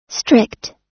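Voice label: "strict", US English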